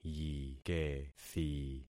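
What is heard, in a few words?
"yikethi", concatenated syllables; Affixing experiment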